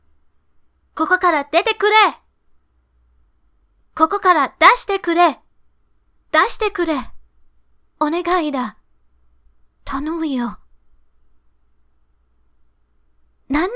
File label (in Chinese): 角色扮演